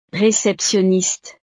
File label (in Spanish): "receptionniste", frances; profesiones